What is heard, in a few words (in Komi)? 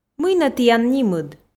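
мый нӧ тіян нимыд, Komi, female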